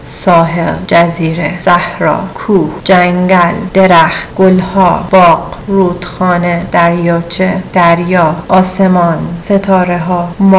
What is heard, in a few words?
Persian nature